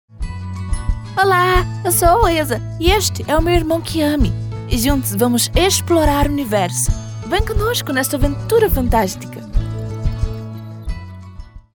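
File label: commercial european portuguese angolan young cartoon female voiceover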